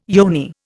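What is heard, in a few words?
"yoni", Indian English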